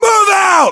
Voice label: order move generic, US